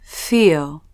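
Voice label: "fee-oh", English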